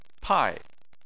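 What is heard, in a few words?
"pai" fourth tone, Chinese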